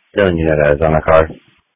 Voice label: Police Tactical Encrypted